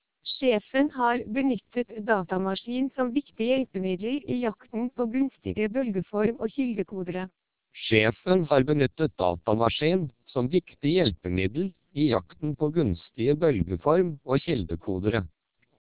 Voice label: 1200 bps TWELP, Norwegian